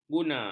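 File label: "guna", Malay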